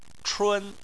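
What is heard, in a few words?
"chun" first tone, Chinese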